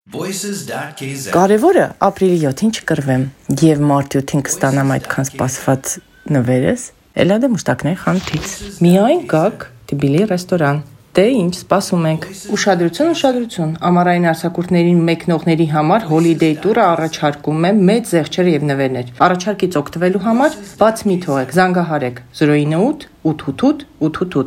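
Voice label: Armenian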